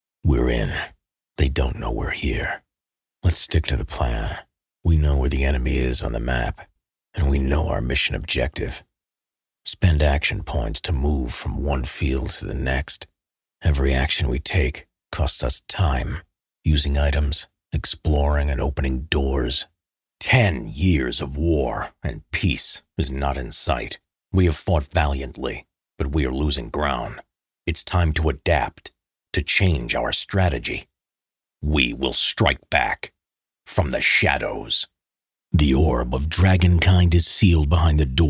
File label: male US; lektoring